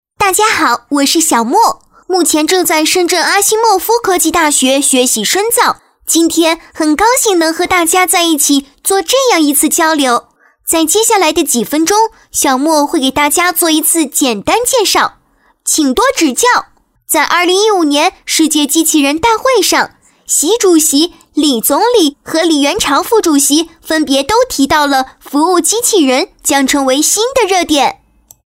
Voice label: 女-模仿-卡通人机器人

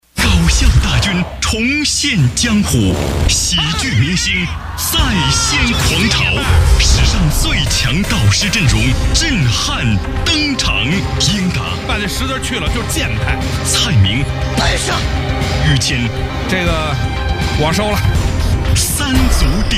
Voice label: 男 片花

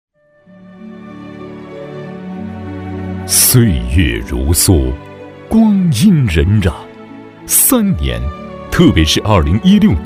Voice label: 男 专题 交警

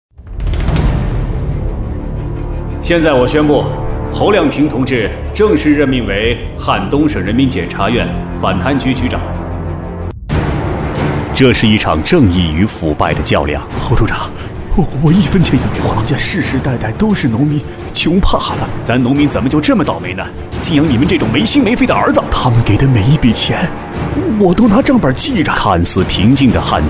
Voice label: 男 专题; 人民的名义

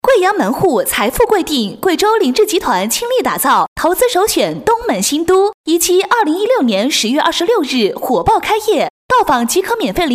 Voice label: 女 广告 房产; 东门新都

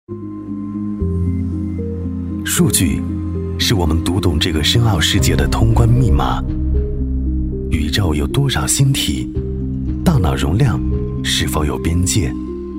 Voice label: 男 专题 科技神秘

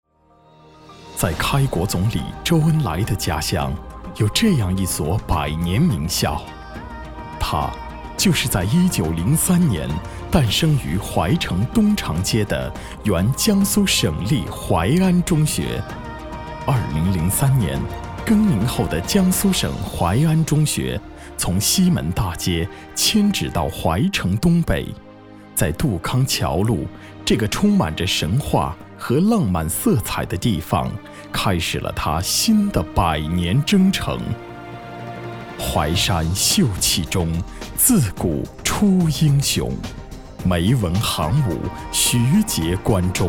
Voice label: 男 专题 学校宣传片

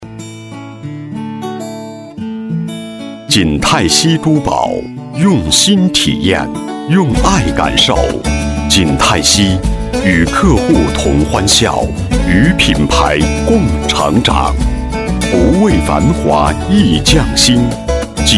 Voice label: 男99-广告-珠宝广告

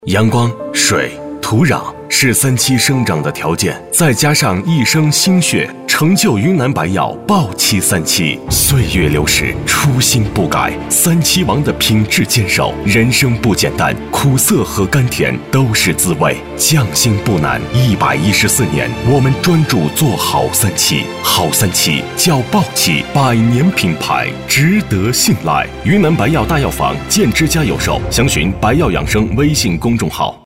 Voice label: 男 广告; 云南白药豹七三七节E

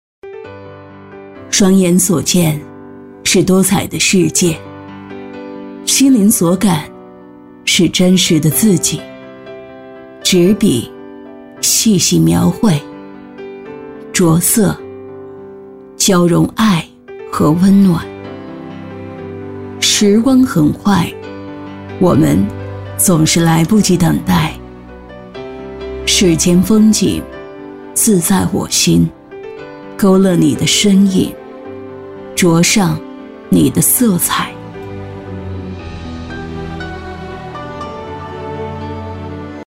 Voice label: 女 解说; 画心

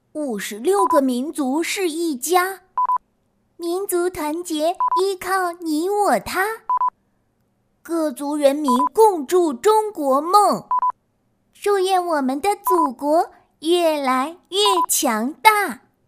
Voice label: 男童女童试音; 角色; 祖国强大

